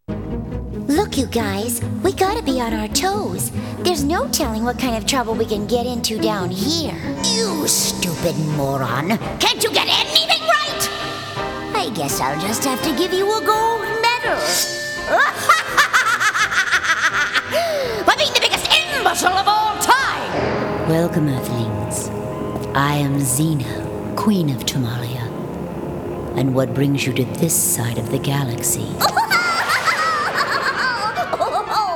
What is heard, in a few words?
Animation VO